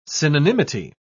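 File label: "synonymity", British English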